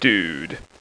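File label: "dude", male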